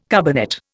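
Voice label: "carbonate", Indian English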